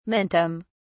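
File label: "mentum", British English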